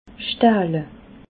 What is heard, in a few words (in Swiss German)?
"stahle", alsace